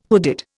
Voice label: "hooded", Indian